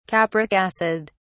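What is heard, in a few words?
"capric acid", English, British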